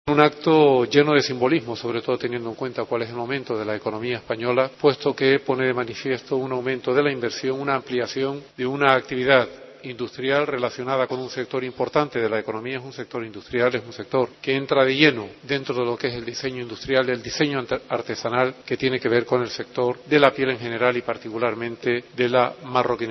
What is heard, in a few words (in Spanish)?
noticias